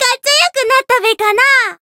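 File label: Voice growth, Japanese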